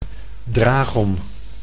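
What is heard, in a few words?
"dragon", Dutch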